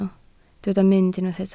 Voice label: "mynd", Welsh